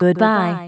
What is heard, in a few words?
"goodbye" female; computers